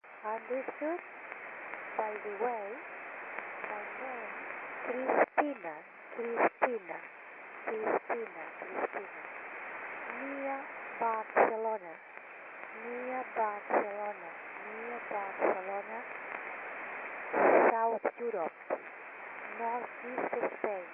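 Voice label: strugling with a station from Australia fading out in difficult band conditions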